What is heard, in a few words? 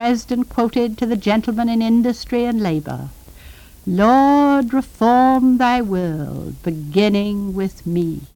Speech New York, american english dialect; Eleanor A Roosevelt